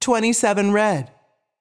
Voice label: voice Over, roulette; american roulette 3